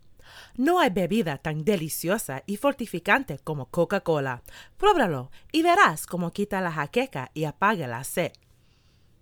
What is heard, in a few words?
Spanish Sample